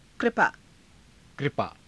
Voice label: "kripaa", Hindi

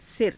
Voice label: "sira", hindi; सिर; [男]頭

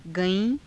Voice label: "gaii~", Hindi

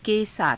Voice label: "ke saatha", Hindi; ･･･と共に/に対して; केसाथ

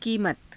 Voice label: "kiimata", Hindi

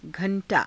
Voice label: "gha^_taa", Hindi

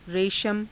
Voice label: "reshama", hindi

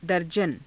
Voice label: "darjana", Hindi

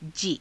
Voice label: "jii", Hindi

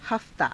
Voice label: "haftaa", Hindi

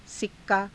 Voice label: "sikkaa", hindi

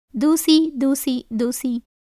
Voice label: Tamil

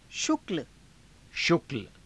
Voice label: "shukla", hindi

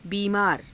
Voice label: "biimaara", Hindi

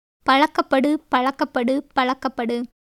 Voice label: Tamil

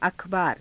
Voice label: "a khabaara", hindi

